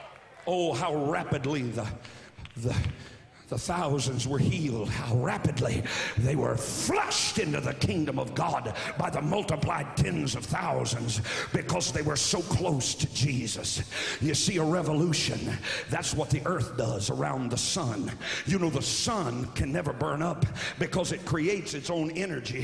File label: Preacher Rant